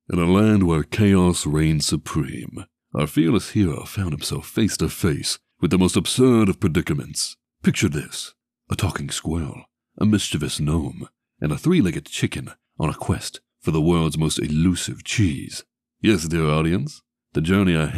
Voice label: Narrator